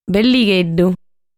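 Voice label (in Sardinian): "Belligheddu", Sardinian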